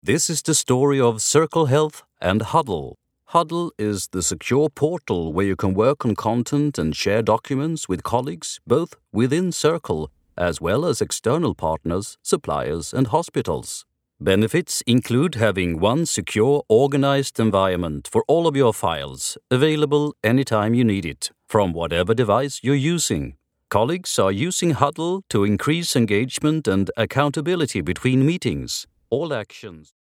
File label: swedish others